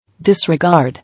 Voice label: "Disregard", English

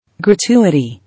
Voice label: "Gratuity", English

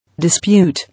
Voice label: "Dispute", English

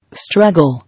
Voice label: "Struggle", English

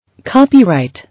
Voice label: "Copyright", English

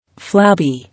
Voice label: "Flabby", English

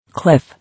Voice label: "Cliff", English

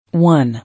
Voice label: "One", English

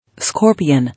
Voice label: "Scorpion", English

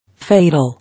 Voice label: "Fatal", English

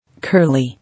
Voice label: "Curly", English

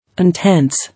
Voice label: "Intense", English

